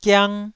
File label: "giang1", Taiwanese syllable